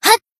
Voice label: Shout; Azusa; Swimsuit

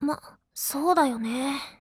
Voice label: ACCEPT, JP; CZ100

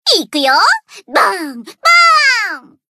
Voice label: Battle Shout; Mutsuki

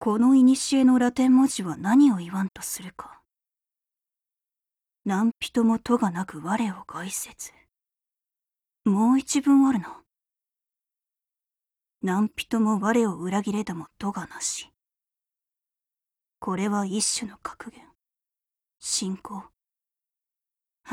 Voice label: DIALOGUE JP; BoysAntiTank

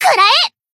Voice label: Battle Shout; Izumi

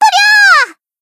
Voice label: Cheerleader Battle Shout; Kotori

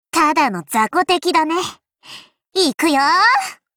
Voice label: battle war cry

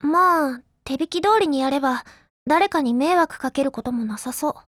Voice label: BLACK ACTION, JP; CZ100

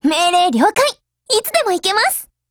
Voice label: GO ATTACK JP; 88typeMod

